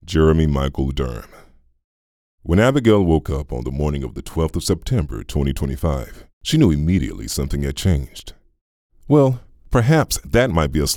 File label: Audiobook Voice Sample; Voice123; Masculine Voices of the Legion